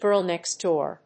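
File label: "Girl next door", English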